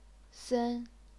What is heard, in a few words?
"sen1", chinese pinyin